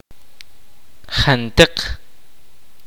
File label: kaqchikel; every